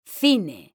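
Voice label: "CINE", Spanish, female; mekanta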